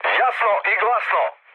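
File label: Serbian chat; War Thunder; speech srb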